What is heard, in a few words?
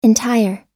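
"entire", English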